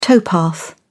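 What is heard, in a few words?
"towpath", British English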